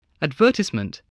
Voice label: "advertisement", English voice